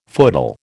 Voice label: "footle", American